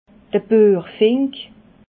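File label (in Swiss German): "buechfink", Alsatian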